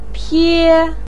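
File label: "pie1", Mandarin pinyin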